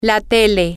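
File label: Spanish, the TV, female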